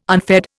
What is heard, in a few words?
"unfed", Indian